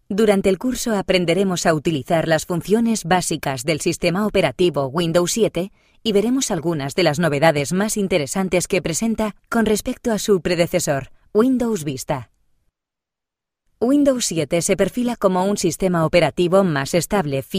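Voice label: Spanish, female, elearning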